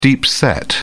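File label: "deep set", British English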